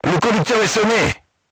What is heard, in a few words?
voice message driver contused, crew tanks french